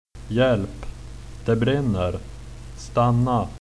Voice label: help, swedish